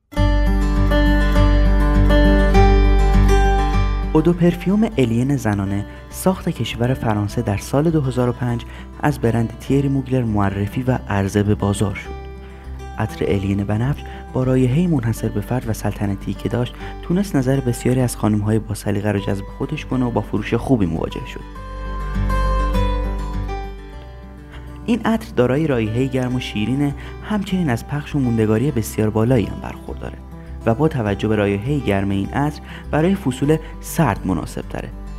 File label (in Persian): VOICE PERSIAN; MUGLER ALIEN